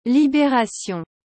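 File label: "libération", French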